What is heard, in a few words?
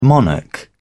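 "monarch", British English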